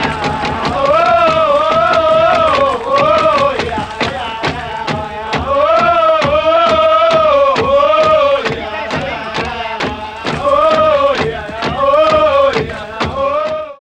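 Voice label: voice, Foreigns; Sound & Vision; Gigapack II CD 2 (Roland)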